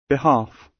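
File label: "behalf", British; Crime and punishment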